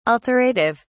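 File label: "alterative", British English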